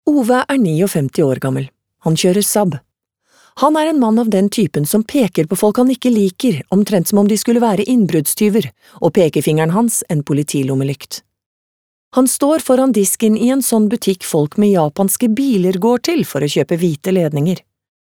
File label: no audiobook, kvinne; norsk